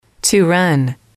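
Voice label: "to run", English, female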